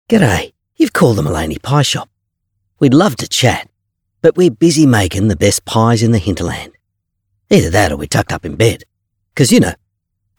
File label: AU PHONE